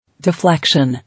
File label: "Deflection", English